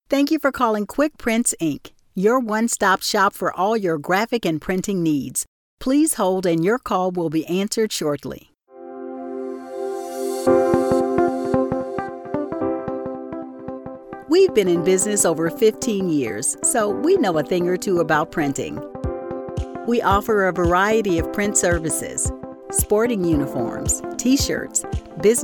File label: IVR